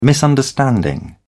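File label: "misunderstanding", British English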